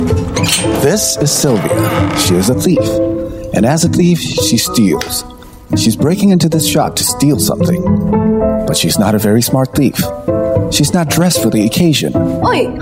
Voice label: Asian English Cheeky Narrator